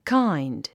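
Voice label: "kind", English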